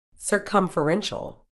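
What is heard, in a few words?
"circumferential", British English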